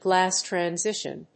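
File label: "Glass transition", English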